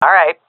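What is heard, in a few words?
agree, female; vo; Counter Strike Model Pack; CS:GO; seal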